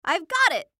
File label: Cartoon Voice Female; Cartoon Voices; Blastwave